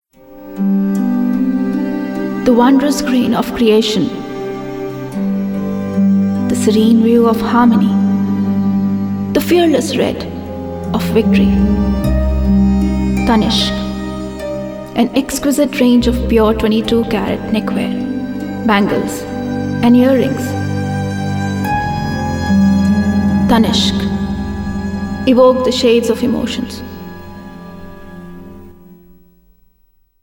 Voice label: eng promo, delhi; female voice